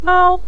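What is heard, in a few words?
"mao", Mandarin Chinese neutral tone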